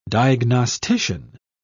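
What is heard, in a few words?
"diagnostician", British English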